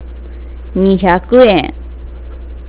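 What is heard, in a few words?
"nihyaku" (two hundred), nagoyaben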